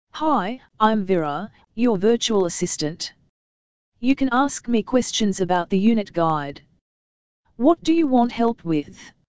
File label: dialog